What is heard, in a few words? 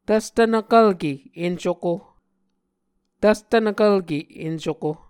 "tvstvnvkvlke encuko", Muscogee